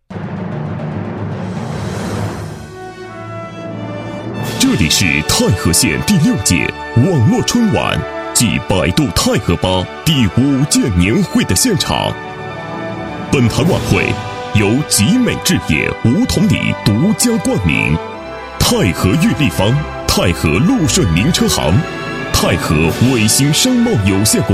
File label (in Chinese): 男 颁奖 晚会开场 大气 激情